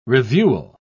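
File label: "reviewal", British English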